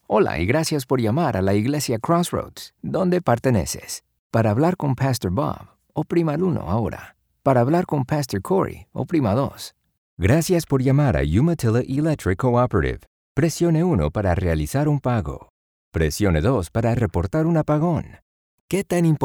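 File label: spanish latin american interactive voice response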